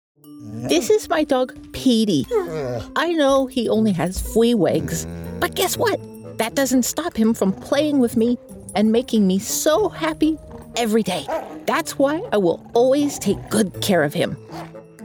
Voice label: Character

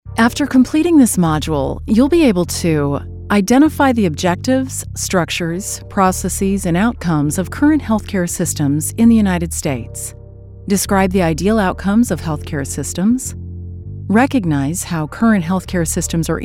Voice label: Elearning Courses

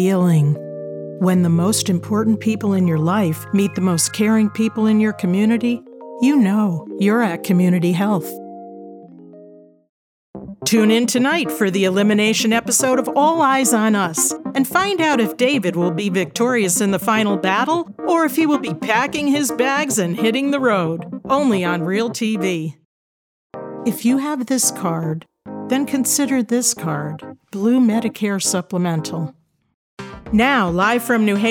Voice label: Voice Over Demo With Music

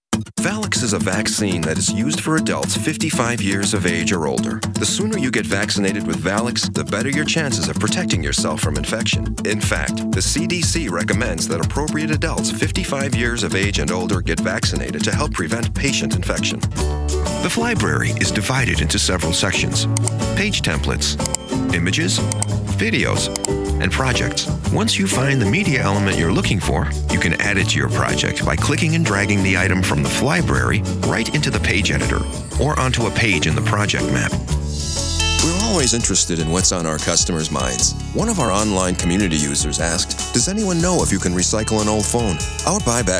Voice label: Corporate

